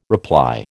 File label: "reply", American